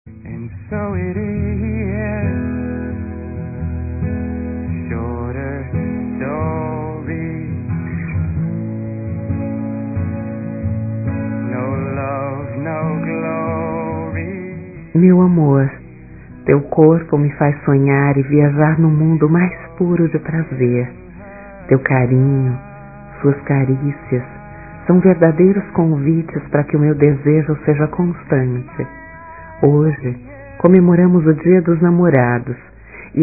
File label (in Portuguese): ERÓTICA SUAVE F, fem; Dia Dos namorados; Telemensagens 2017